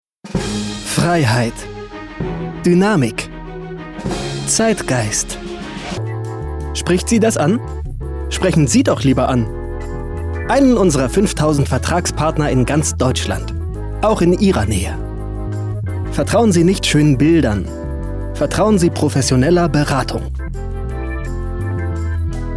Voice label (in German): Werbung; Autohaus